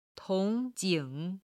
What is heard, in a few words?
"tong jing", chinese